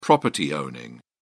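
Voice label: "property-owning", British English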